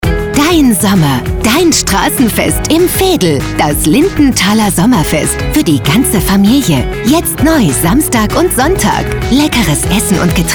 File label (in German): Radiospot; Lindenthal Sommerfest